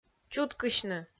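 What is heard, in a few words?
"чуткысьны", Komi, female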